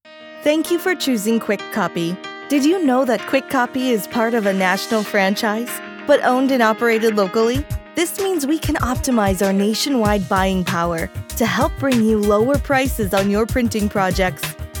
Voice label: American Accent Music Female; Kwik Kopy